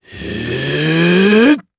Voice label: Vox Casting, jp; voices; heroes; Lusikiel; kingsraid